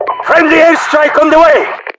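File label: Russian friendly air, voiceovers; english; mpvoice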